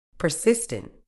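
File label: "persistent", medical English, British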